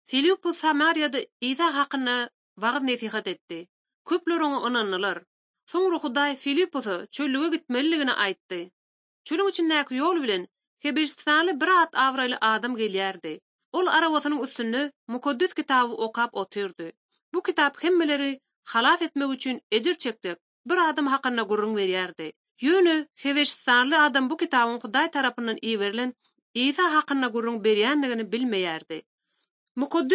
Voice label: turkmen, bible translation drama; acts of the holy spirit; institute for bible translation